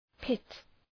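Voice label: "pit", English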